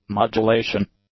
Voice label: "modulation", English; nouns